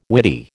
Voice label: "witty", American